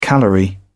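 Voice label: "calorie", British English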